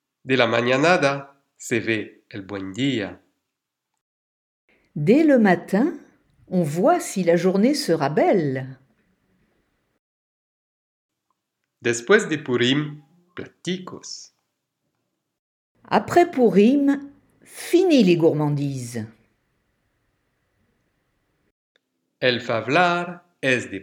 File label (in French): Proverbes judeo espagnol